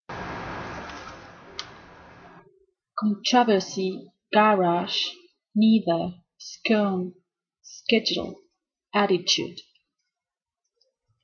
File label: santiago chile female